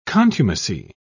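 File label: "contumacy", British English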